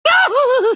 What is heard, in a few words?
pain; tf; crash